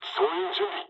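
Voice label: near waypoint mood low, speech japanese; War Thunder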